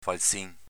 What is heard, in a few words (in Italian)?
"falzin", dialetto veneto; s.m falcetto, piccola falce